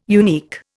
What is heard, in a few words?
"unique", Indian